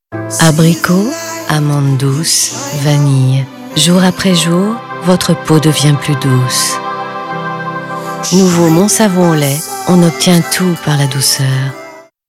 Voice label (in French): pub mon savon